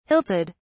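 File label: "hilted", British English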